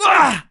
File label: human man, monster dragonman